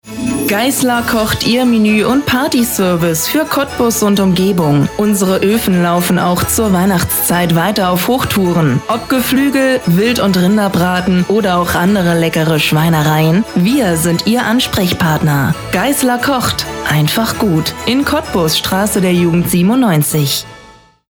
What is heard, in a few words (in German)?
Radio